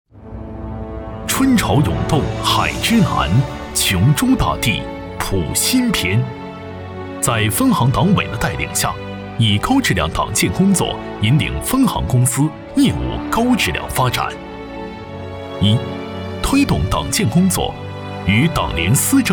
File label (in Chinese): 男 浑厚 银行海口分行党建先锋宣传片; 银行宣传样音; 中信银行海口分行党建先锋宣传片